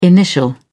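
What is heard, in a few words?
"initial", British English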